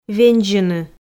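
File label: "вензьыны", Komi, female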